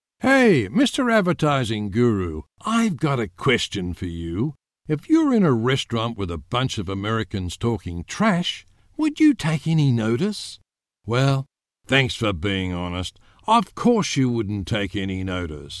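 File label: English Australian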